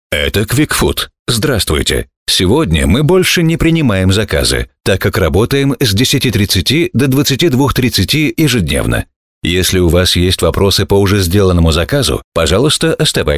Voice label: IVR